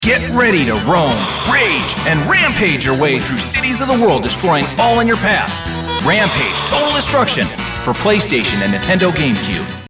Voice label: Video Game Commercial